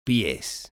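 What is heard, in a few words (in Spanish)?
"pies", Spanish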